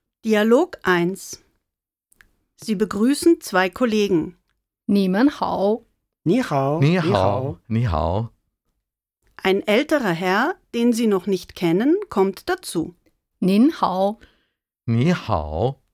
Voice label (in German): Dialog